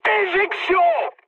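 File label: French bailout mood high; War Thunder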